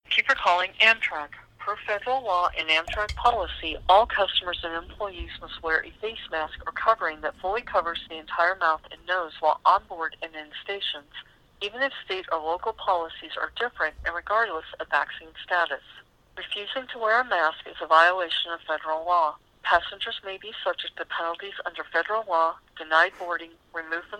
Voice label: telephone message; Amtrek